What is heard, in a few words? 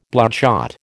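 "bloodshot", American English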